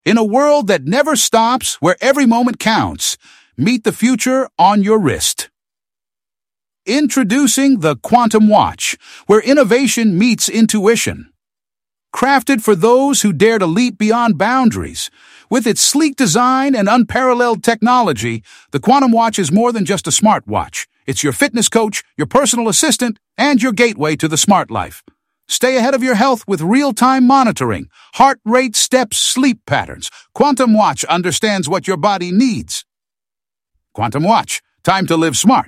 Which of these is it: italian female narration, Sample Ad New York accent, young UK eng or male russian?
Sample Ad New York accent